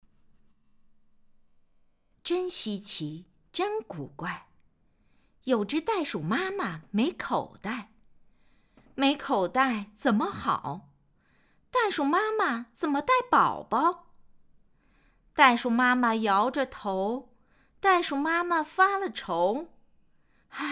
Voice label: 儿童 故事

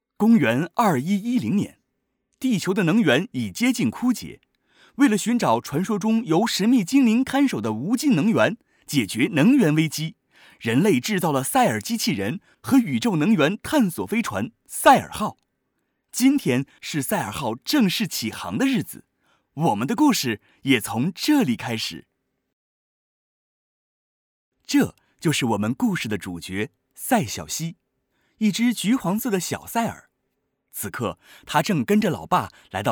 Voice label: 旁白 自然讲述

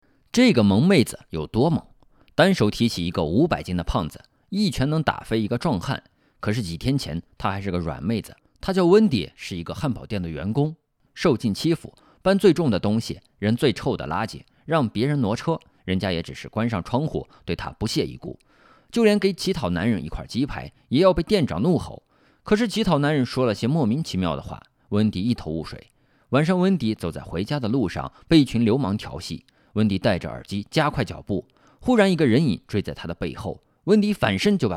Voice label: 电影解说类

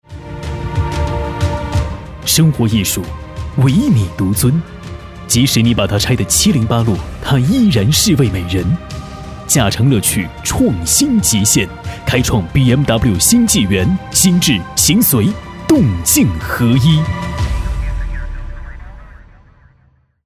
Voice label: 品质高端广告

